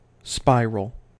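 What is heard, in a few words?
"spiral", US English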